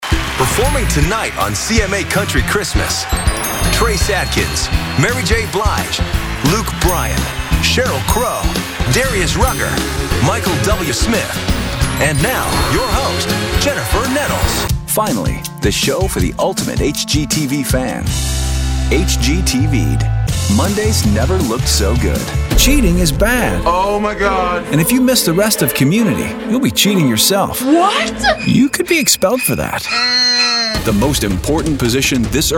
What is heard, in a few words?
men promos; Friendly, believable and the trustworthy voice of your tech-savvy neighbor.